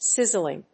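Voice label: "sizzling", English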